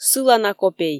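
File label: "сылана копей", Komi, female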